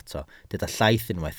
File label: "llaith", Welsh